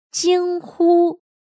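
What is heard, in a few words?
"惊呼", Chinese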